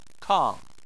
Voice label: "kang4", Chinese